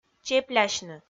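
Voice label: "чеплясьны", Komi, female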